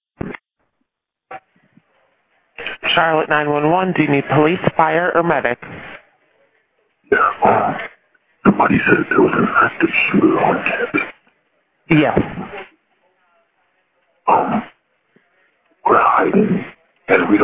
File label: 911 call